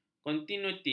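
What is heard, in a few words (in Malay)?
"kontinuiti", Malay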